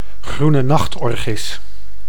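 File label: "groene nachtorchis", Dutch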